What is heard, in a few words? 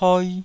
"poi2", taiwanese